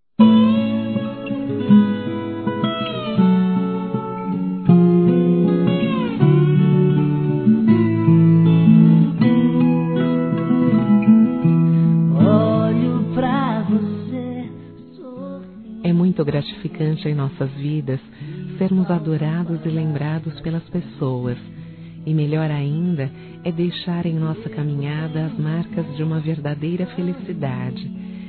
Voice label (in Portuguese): GERAL F; Aniversários; Aniversários diversos; fem